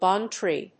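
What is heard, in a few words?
"Bong tree", English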